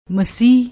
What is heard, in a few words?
"masey", Punjabi; ਮਸੀਹ